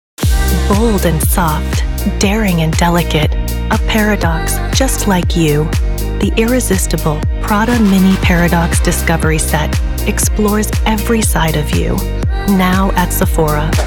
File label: Commercial